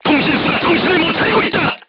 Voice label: voice message machine gunner contused, korean, crew tanks